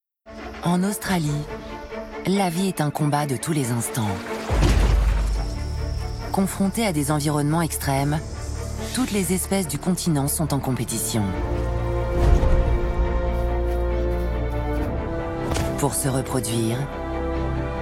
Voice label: narration; Arte; Australie